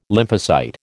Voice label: "lymphocyte", American